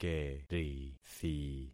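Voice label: "kerithi", concatenated syllables; Affixing experiment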